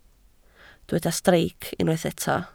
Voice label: "streic", Welsh